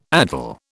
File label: "addle", British